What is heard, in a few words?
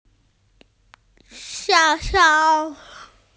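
"banana", Mandarin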